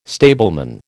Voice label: "stableman", American

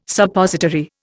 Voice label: "Suppository", Indian

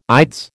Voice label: "ides", American

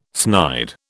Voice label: "snide", British

